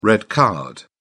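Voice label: "red card", British English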